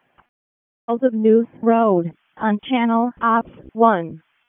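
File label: Raleigh Fire Department ALERT, p25; Wake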